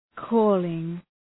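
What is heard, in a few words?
"calling", English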